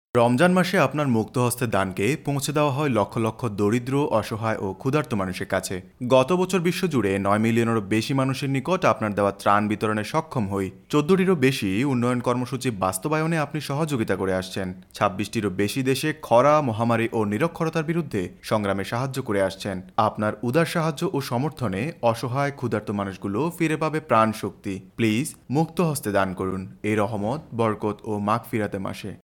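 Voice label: Male, Bengali India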